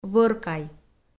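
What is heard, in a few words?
"вӧркай", Komi, female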